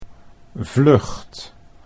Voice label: "vlucht", Dutch

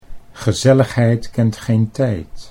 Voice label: gezelligheid kent geen tijd, Dutch

